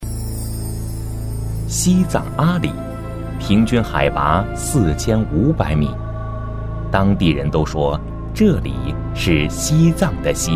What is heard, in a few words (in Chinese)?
男 纪录片 西藏阿里